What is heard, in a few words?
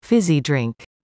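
"fizzy drink", English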